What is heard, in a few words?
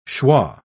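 "shwa", British English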